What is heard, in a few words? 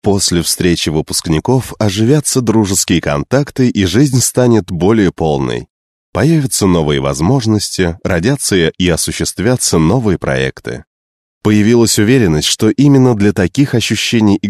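Russian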